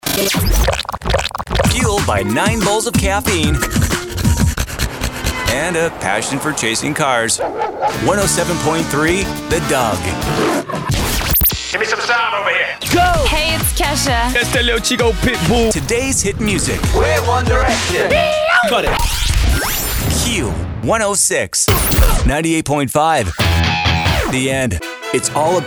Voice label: Radio Imaging